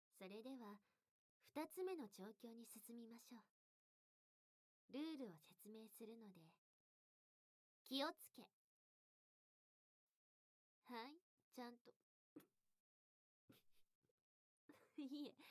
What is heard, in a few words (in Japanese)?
シコシコボイスオナニー, ASMR; 調教2